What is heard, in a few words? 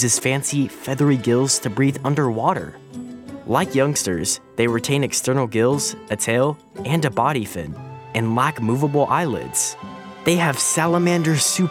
Axolotl Narration; ESL